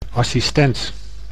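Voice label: "assistent", Dutch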